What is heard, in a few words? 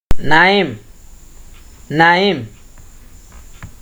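"naim", Nigerian Pidgin, male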